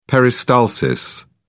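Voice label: "peristalsis", British English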